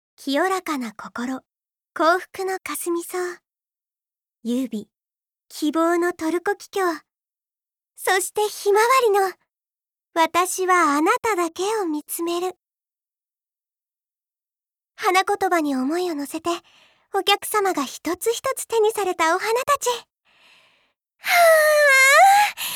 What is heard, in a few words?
soft lady; ふんわりな女性